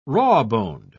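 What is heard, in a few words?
"rawboned", British English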